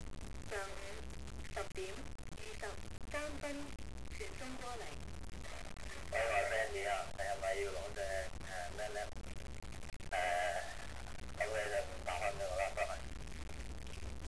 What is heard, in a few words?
voice message